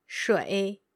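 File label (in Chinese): "shui", Mandarin Chinese, female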